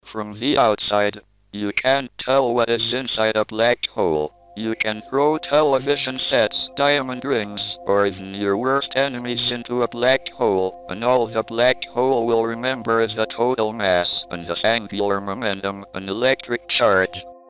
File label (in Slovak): Hlasova synteza; Stephen Hawking